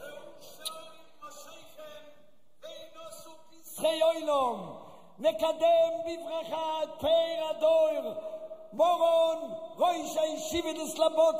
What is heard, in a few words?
Welcoming; Yeshivas Mir Yerushalayim; shiurim